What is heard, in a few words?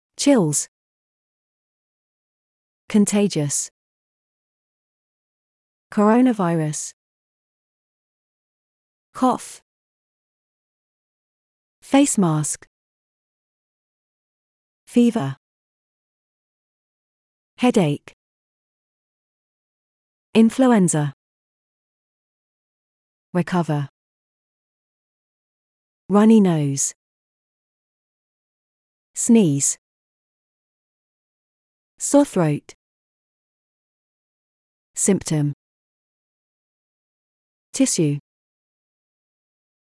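British; colds flu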